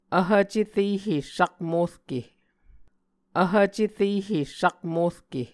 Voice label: "vhv cerehe sakmorke", Muscogee